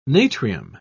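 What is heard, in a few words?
"natrium", British English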